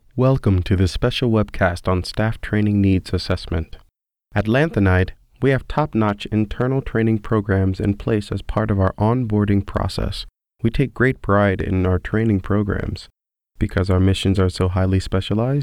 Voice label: eLearning